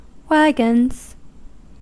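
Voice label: "wagons", American English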